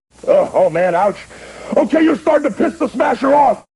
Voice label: smasher upset; speech